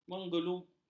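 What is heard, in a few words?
"menggumuli", Malay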